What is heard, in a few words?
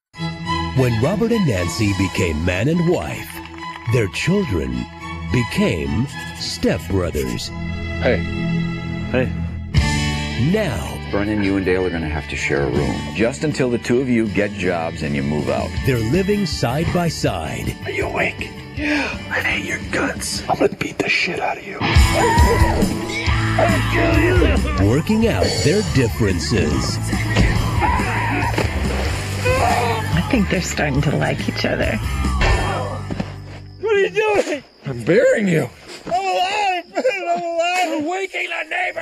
trailer; step brothers